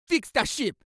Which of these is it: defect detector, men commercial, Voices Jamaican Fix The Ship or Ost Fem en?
Voices Jamaican Fix The Ship